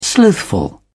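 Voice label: "slothful", British English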